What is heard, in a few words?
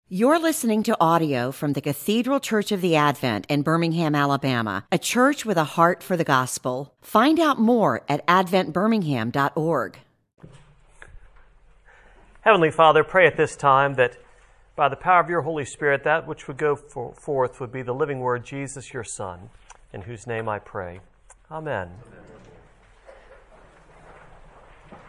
Assembly Hall Sermon; assembly hall service